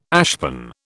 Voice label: "ashpan", British

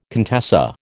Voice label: "contessa", American

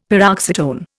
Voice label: "paroxytone", Indian; Dictionary

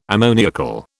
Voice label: "ammoniacal", British

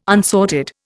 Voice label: "unsorted", Indian

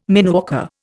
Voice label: "mineworker", Indian

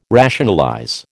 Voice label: "rationalize", American

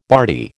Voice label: "bardy", American

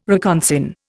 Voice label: "reconsign", Indian

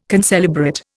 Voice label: "concelebrate", Indian

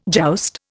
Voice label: "joust", Indian

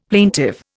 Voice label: "plaintive", Indian; Dictionary

